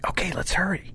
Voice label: "lets hurry", hostage; css